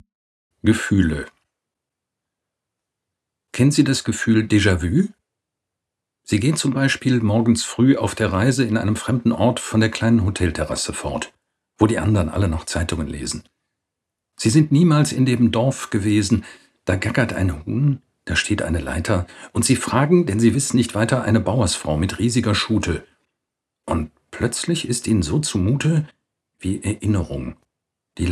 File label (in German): Gedicht Gefuehle; LYRIK; LYRIK DIVERSE; Hörbuch